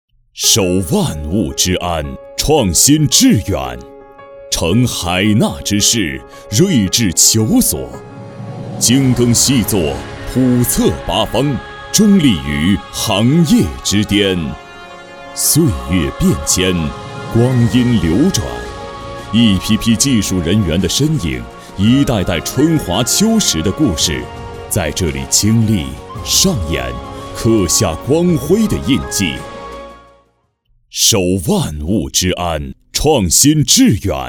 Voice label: 男 企业宣传 大气激情; 沈阳电气

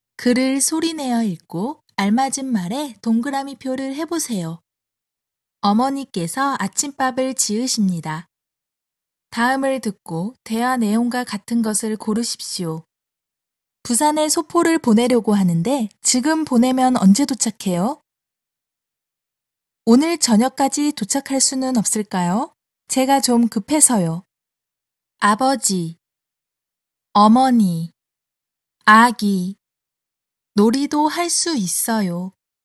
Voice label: 韩语女 干音

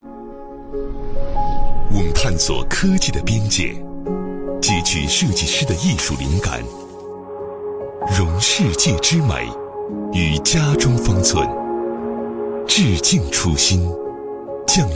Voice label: 男 广告 CCTV1 瓷砖; 东鹏瓷砖

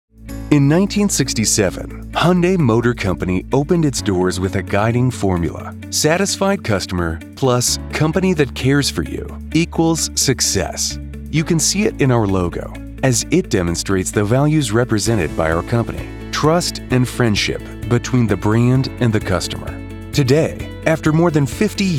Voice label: 公司宣传片 轻快

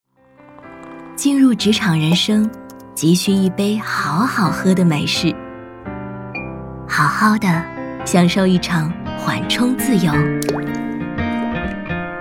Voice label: 女 广告 饮品温暖甜美职场; 好好喝的太平洋咖啡

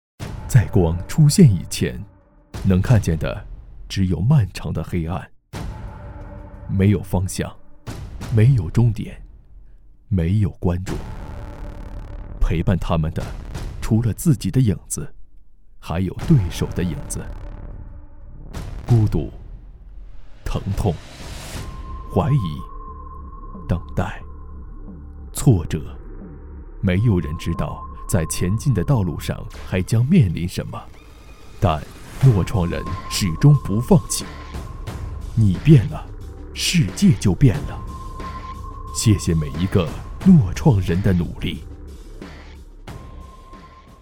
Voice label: 男 旁白; 诺创

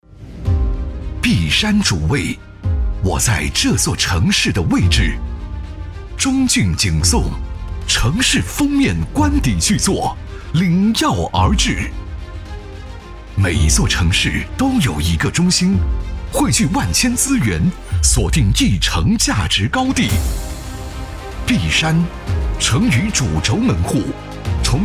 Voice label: 男 专题 大气 介绍; 中骏璟颂

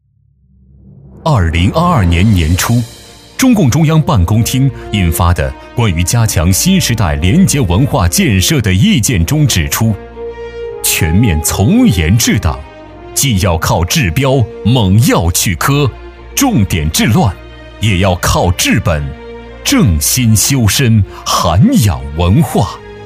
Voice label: 男 厚重力度 廉政专题

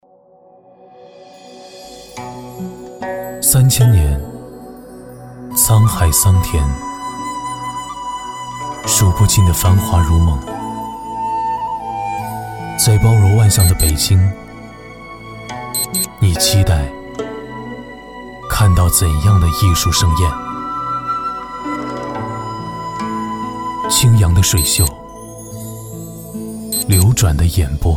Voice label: 男, 宣传, 轻柔韵味

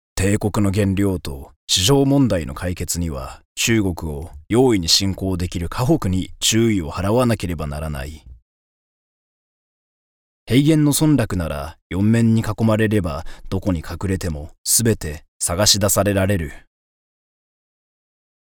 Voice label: 日语 试音; 行者

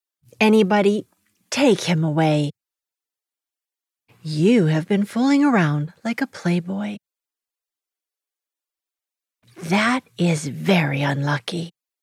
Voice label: 年龄稍大 女 角色

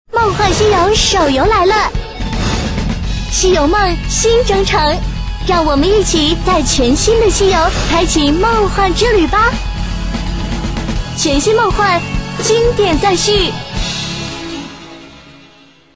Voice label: 女 广告; 梦幻西游

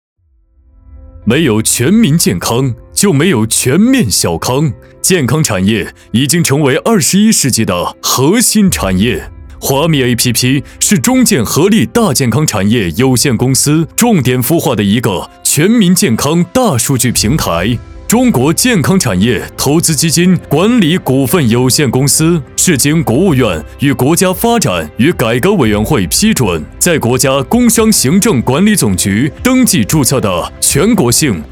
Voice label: 男 企业专题; 华蜜平台

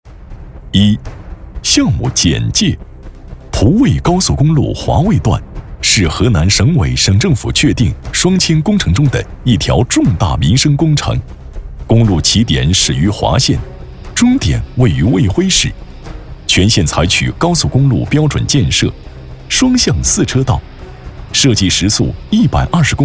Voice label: 男 工程介绍类 河南高速 讲述 厚重 沉稳

